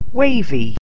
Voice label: "wavy", English